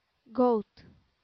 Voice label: "goat", English